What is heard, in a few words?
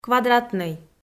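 "квадратнӧй", Komi, female; kpv audio dict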